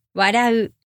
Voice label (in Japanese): "笑う", Japanese